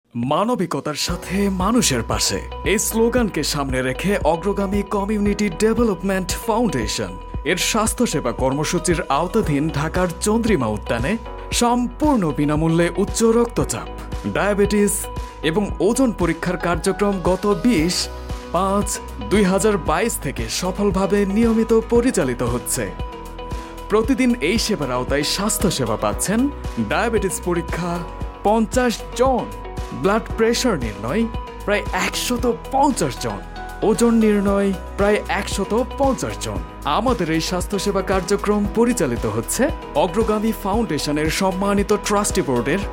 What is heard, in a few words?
bangla